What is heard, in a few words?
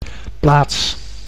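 "plaats", Dutch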